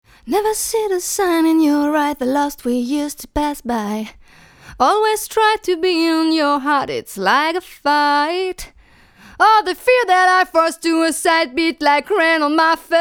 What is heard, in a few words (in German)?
Audio Technica AT4047, mikrofon test vergleich